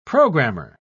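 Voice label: "programer", British English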